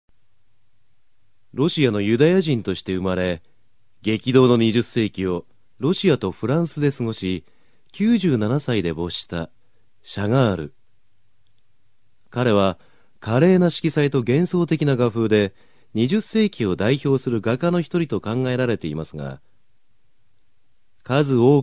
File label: narration